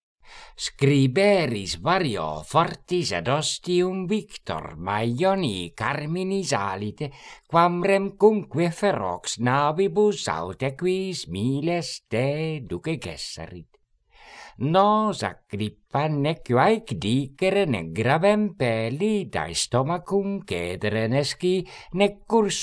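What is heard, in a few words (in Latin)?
Horatii carmina quae voce canora pronuntiat; Scriberis vario fortis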